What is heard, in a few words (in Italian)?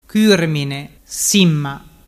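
"curminesimma", Vernazzese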